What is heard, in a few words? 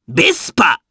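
Vox Japanese; Lakrak; Vespa; kingsraid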